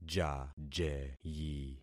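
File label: "jajeyi", concatenated syllables; Affixing experiment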